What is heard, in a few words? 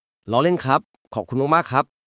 Thai, basic thai phrases